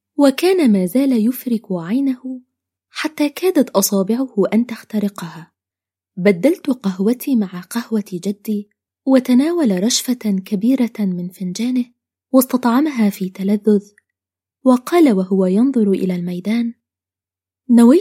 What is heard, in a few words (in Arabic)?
العينة الفصحى